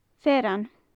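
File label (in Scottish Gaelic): "fearann", Gaelic; land; fearainn, talamhan; Faclan Nàdair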